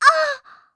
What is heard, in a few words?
lucy voice unbalnce